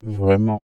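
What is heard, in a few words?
male "vraiment" flattened transformed, French; CLEESE; chained pitch stretch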